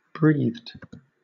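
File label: "breathed", British English; pronunciations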